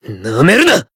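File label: damaged, Japanese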